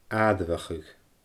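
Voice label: "aaireamhachadh", Scottish Gaelic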